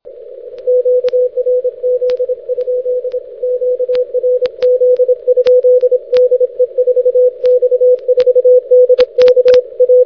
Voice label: qrz 6m; 6 Meter; N1BUG